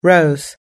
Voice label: "rose", us-english